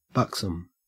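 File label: "buxom", Australian English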